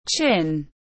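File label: "chin", English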